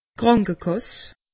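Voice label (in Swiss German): "krankekass", Alsatian; medecine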